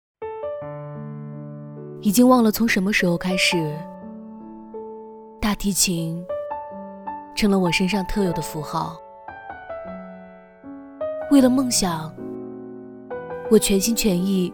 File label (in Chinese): 女 独白 微电影旁白; 方言